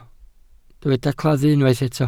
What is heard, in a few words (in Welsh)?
"claddu", Welsh